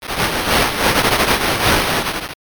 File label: whisper